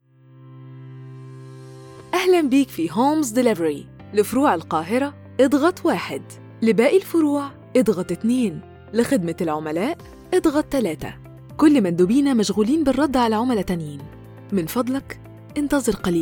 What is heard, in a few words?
IVR